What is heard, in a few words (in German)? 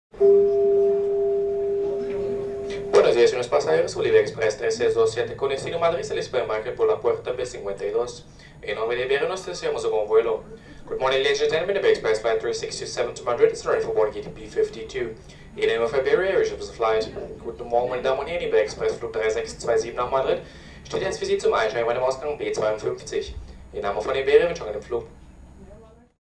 Expressflug nach Madrid, Flughafen Ansagen